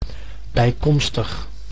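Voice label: "bijkomstig", Dutch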